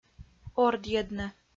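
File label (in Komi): "ордйӧдны", Komi, female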